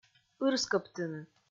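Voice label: "ырскӧбтыны", Komi, female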